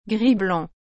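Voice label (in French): "gris blanc", French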